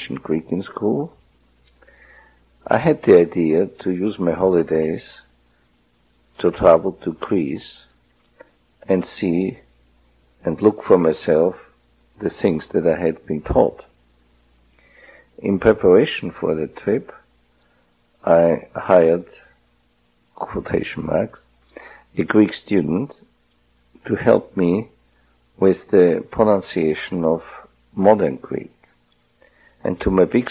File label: austria, dialects